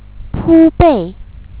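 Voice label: "pu1bei4", Chinese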